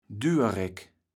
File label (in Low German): "Düwereck", kaevels platt